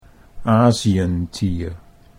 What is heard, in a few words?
"Asientier", Dutch